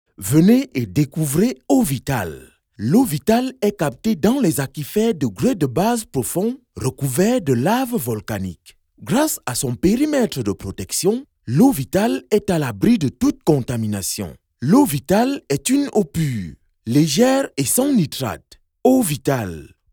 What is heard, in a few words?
Commer energ